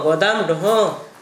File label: warehouse, Gta, Dialect: Hill; household